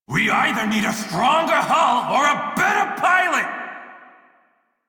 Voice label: Crew Ship Low Male en; Dialog; Warframe; Railjack Crew; Red Veil

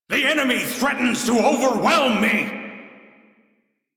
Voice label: Crew Near Death, Male; Dialog; en; Warframe; Railjack Crew; Arbiters Hexis

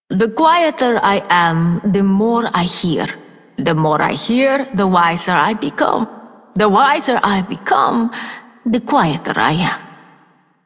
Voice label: Female Generic, Female, en; Dialog; Warframe; Solaris Venus

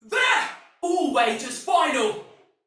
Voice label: See Player Shout, Female, Soldier; Dialog; Barks; Battle; Sinecure

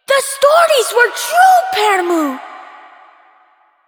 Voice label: Child; Ostron; Warframe; Cetus Invasion; Villagers; en

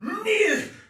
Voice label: Attack, Soldier Male; Sinecure

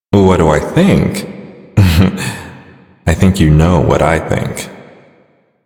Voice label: Male en, ambient dialog; Warframe; Duviri

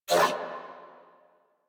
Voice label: Threatened, Female; Warframe; New War; Narmer Grineer

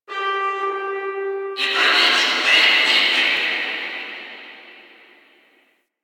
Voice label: Announcer

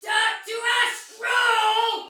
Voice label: Attack Heavy Soldier Female, Battle; Dialog; Barks; en; Sinecure

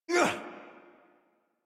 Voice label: Adult Operator Jump, en; Warframe; New War

